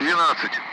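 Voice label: Speech, Russian, Numbers, 12; Allied Flight; DCS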